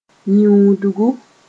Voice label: "yĩudgu", Mooré